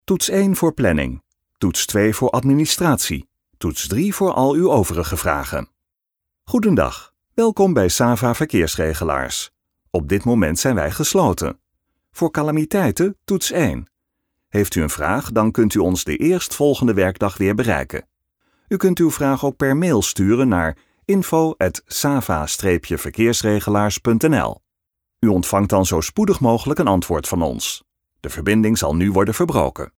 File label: nl ivr friendly up tempo